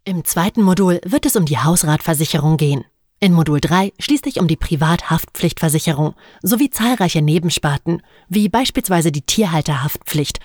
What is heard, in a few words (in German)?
E-Learning Sprecherin Versicherung